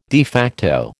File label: "de facto", American